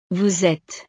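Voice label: "vous etes", French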